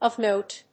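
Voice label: "of note", English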